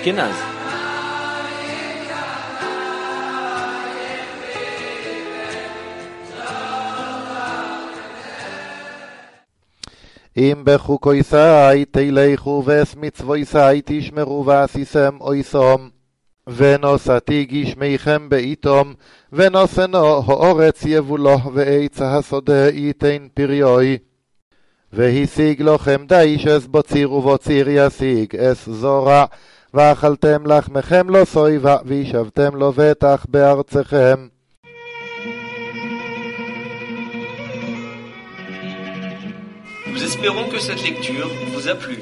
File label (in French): Paracha Behoukotai 1ere montee Ashkenaze; vayikra levitique